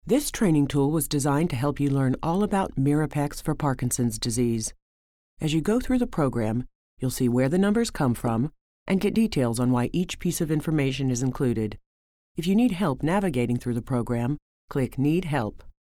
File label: Medical Narration